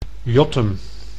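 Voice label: "jottum", Dutch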